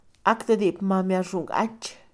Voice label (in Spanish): acta de nacimiento, Mixe, Mujer; Moderno; INALI